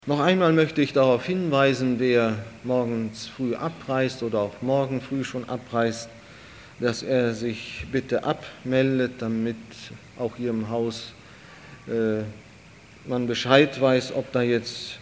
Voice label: Ansage; Gott, der an die Menschen denkt

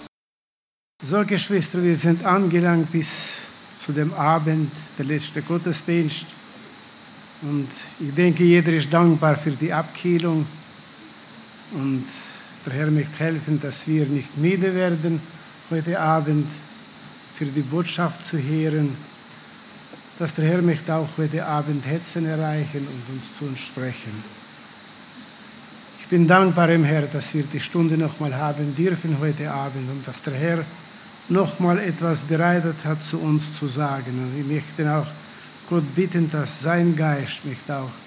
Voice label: Ansage; Lohnt es sich Gott zu dienen

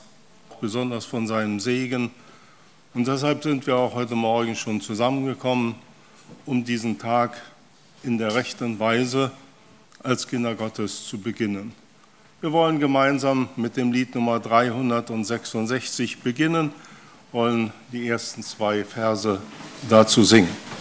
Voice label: Ansage; Lagerversammlung 2018; Gebetsanliegen

